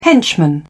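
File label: "henchman", British English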